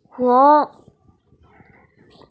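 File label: "heng2", Mandarin Chinese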